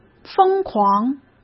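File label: "疯狂", Mandarin Chinese; hsk; chinese-flashcards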